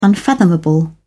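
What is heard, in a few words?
"unfathomable", English, uk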